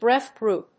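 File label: "breath group", English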